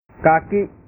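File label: "kaki", Indonesian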